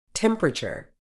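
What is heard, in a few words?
"temperature", British English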